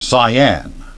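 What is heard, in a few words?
"cyan", English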